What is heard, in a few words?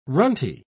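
"runty", British English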